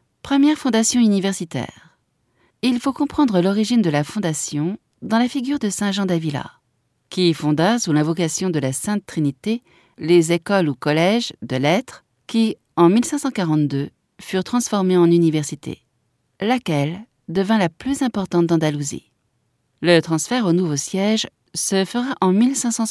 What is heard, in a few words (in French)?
audioguia, frances; audioguia baeza